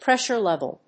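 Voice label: "pressure-level", English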